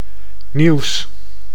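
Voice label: "nieuws", Dutch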